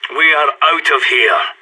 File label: pep talk, radio; SAS